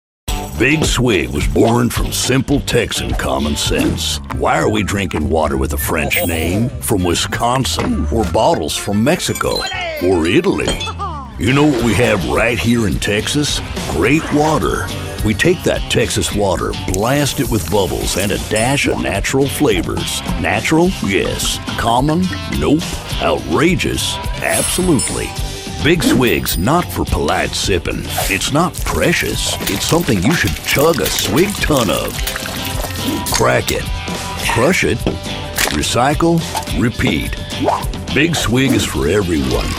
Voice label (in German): TV Werbespots Online Werbespots Breites Publikum; NATIVE PROFISPRECHER